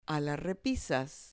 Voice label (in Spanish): "repisas", Spanish